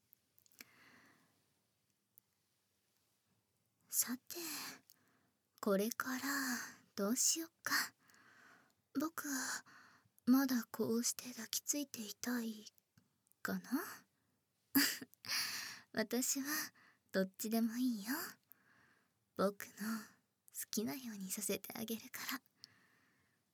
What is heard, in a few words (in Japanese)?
耳舐め カウントダウン 手コキ; お隣の優しいママとのイチャあま手ほどきエッチ; 左右反転